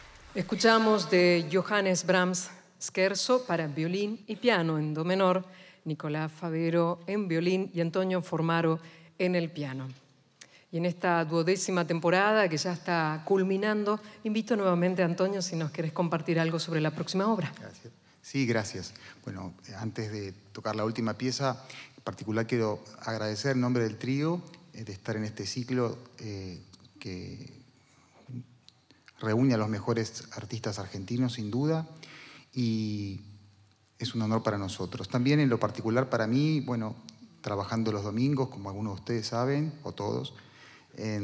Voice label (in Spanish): palabras locutora